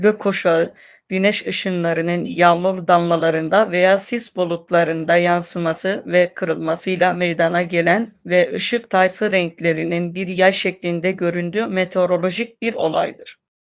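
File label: speaker, American English; piper-voices; tr TR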